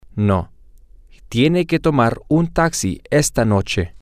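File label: No she has to take a taxi tonight, Spanish, male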